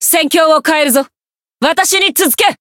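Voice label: Voice chant, Japanese; milla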